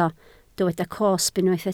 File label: "cosb", Welsh